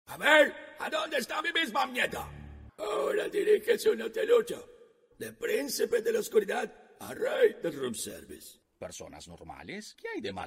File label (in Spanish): toons neutro